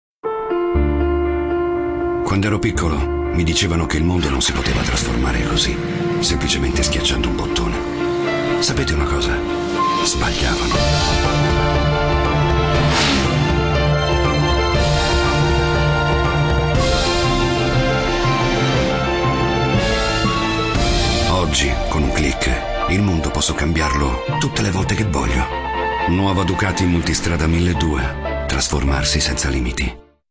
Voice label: Italiano; DUCATI